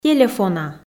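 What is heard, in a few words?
"телефона", Komi, female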